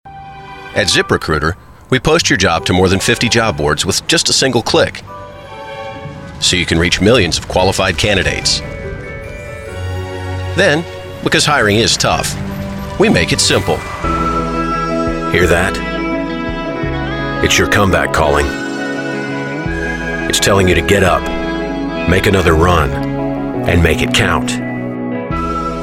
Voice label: commercial